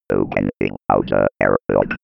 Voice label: 90s computer voice